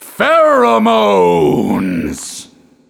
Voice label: Pheromones Voiceover; Horse Pill